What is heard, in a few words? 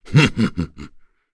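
Vox Happy, English; Bernheim; kingsraid; voices heroes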